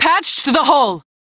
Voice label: Crew Fix Breach, Female; en; Warframe; Railjack Crew; New Loka